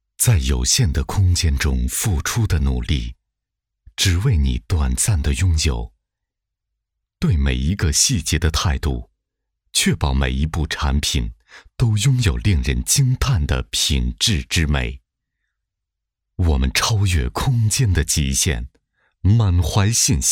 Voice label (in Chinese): 男 广告; 沃捷电梯